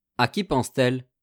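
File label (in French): "à qui pense-t-elle", French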